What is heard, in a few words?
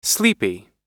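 "sleepy", English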